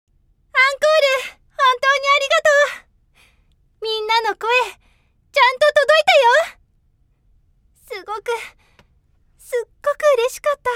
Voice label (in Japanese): ゲーム好きな女の子